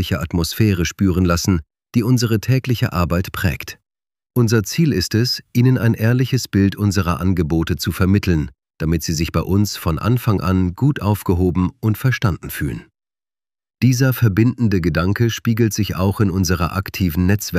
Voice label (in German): Werbung